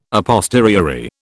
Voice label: "a posteriori", British